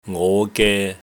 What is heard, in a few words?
"ngo5ge3", Cantonese